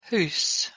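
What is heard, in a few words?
"h2us", Proto-Indo-European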